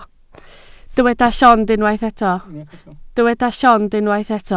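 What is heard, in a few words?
"llond" Welsh, spoken word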